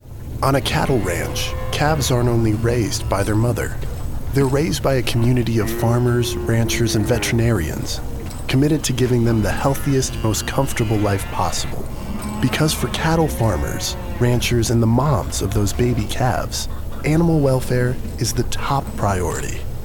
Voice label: radio